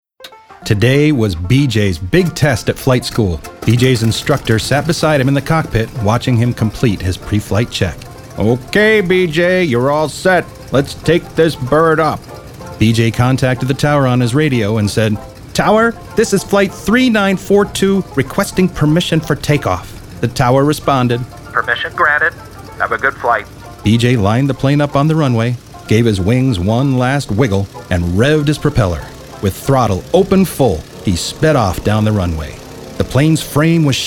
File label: Childrens Audiobook 3rd Person Dialogue